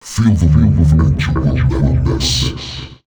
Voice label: male, voice; FX; Mutekki Media; Dance Ultra Voice VOL-1